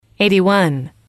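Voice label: "eighty one", English, female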